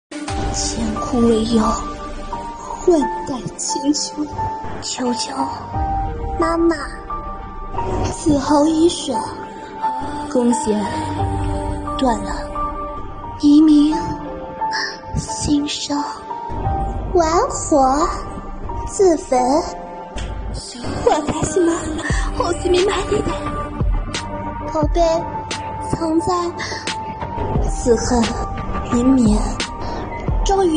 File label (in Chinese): 女英雄配音; 王者荣耀